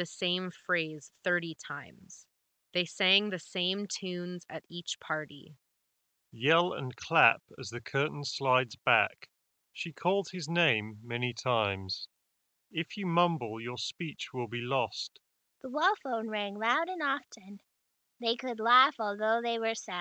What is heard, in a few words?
HyperX Cloud Stinger Core Ideal microphone sample